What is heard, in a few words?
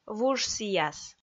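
"вуж сіяс", Komi, female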